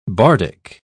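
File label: "bardic", British English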